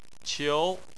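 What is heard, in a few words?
"qiu", Chinese, tone 2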